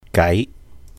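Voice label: "gái", Cantonese; ways; means; 計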